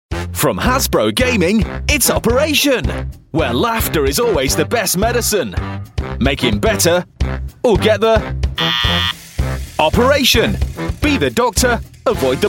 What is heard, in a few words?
commercials kids toys